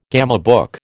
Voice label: "gamebook", American